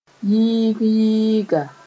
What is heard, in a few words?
"yɩɩg-yɩɩga", Mooré